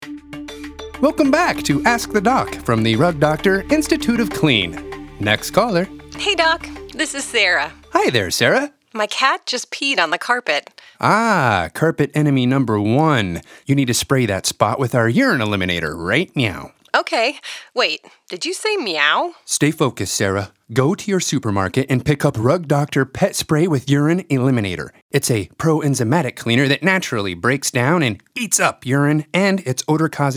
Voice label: Radio; Rug Doctor; Urine Eliminator